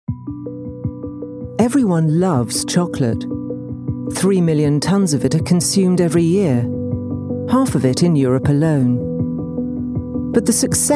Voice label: english british documentaries

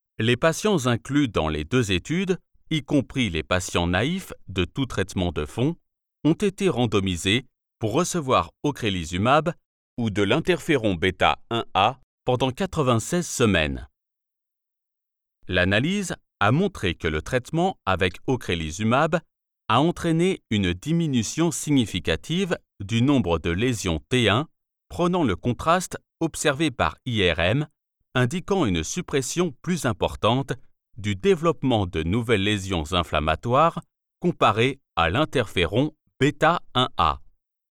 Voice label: french medical narration

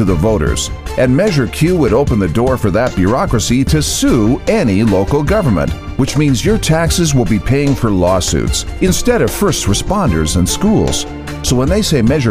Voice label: english american real estate; Profundo e autoritário com clareza elegante e calor

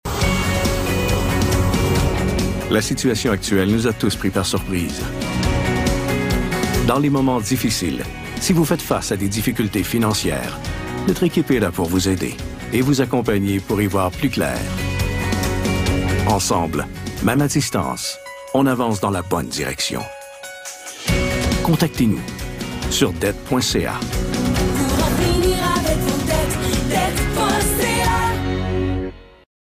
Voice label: french canadian promos

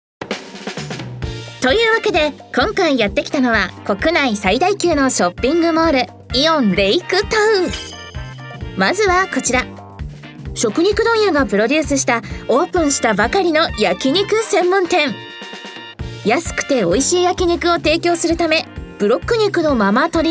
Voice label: japanese tv shows

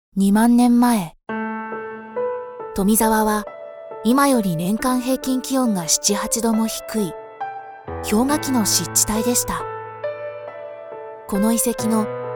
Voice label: japanese documentaries

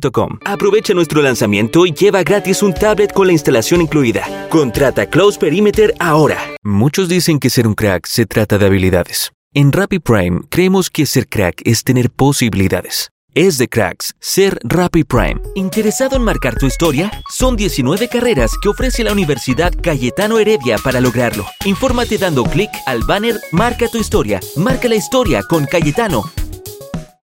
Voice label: spanish latin american commercial

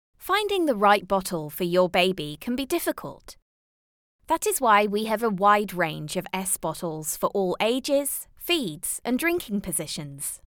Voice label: english british e-learning

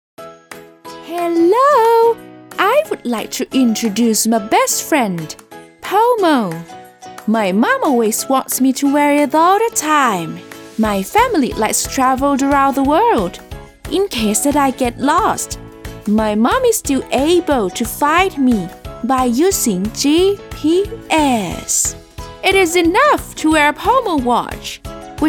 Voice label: english promos

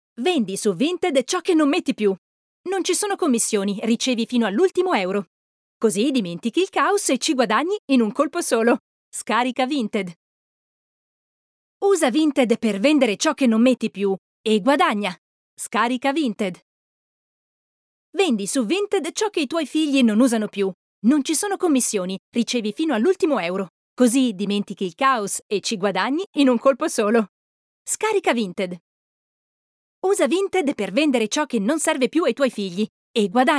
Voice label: italian promos

